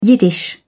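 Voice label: "yiddish", British English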